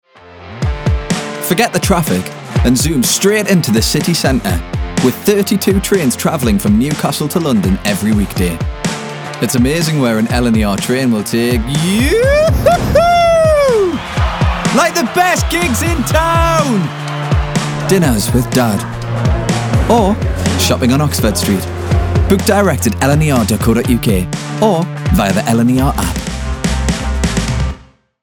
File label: Ad; LNER